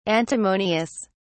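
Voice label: "antimonious", British English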